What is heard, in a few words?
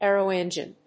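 "aero engine", English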